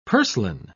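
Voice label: "purslane", British English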